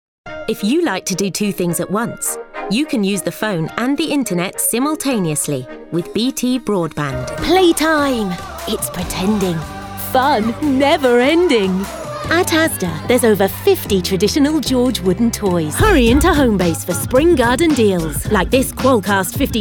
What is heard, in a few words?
commercial, voice reel